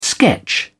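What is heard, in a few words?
"sketch", British English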